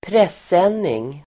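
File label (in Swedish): "presenning", Swedish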